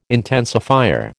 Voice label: "intensifier", American